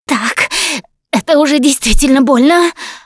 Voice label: going to die, russian; Producer